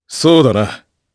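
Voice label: Vox Japanese; Chase; kingsraid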